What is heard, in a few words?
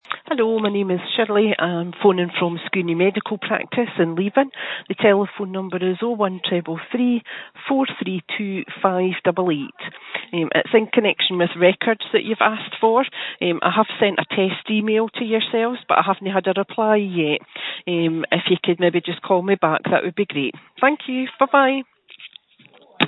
call recording